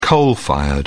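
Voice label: "coal-fired", English, British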